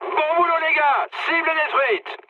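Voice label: French bomb success mood high; War Thunder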